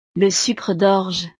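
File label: "le sucre dorge", French; navidad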